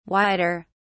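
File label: "wider", British English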